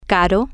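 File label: "caro", Spanish, female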